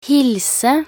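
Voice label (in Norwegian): "hilse", Norwegian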